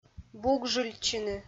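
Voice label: "бугжыльтчыны", Komi, female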